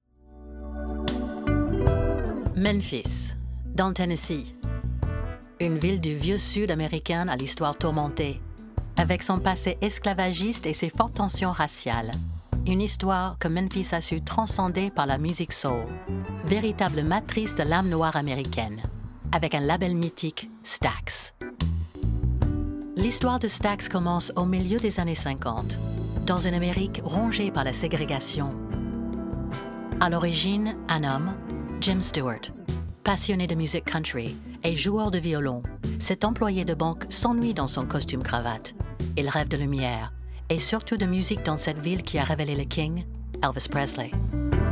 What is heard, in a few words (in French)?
docu VF; Memphis Soul